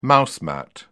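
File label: "mouse mat", British English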